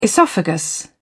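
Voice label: "oesophagus", English, British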